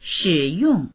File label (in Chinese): "shi3yong4", voices